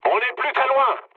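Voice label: near waypoint, mood medium, French; War Thunder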